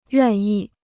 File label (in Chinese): "愿意", Chinese